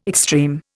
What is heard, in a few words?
"extreme", Indian